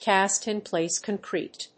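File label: "castin-place concrete", English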